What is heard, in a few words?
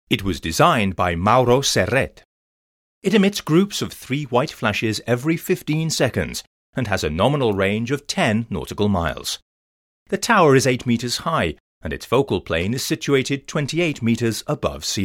lighthouse audioguide; bleda plana